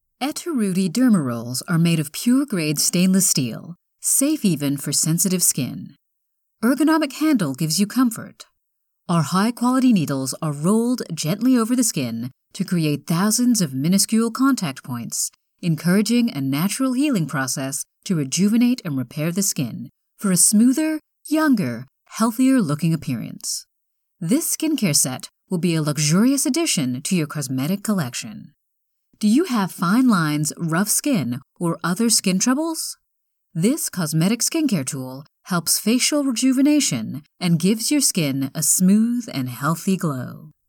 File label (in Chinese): 外籍 美式 女声